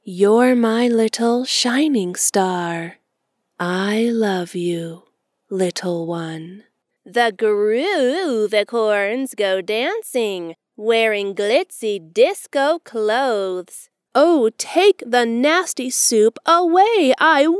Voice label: Childrens Book Quick Samples; voiceover artist